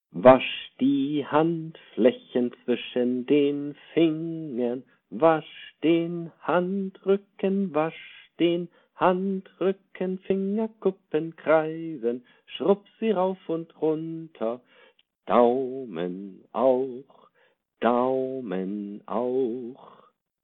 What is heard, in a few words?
German